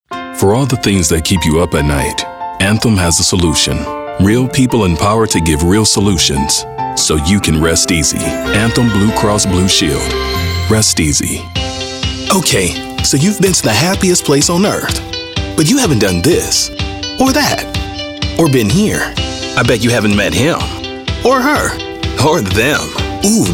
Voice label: Commercial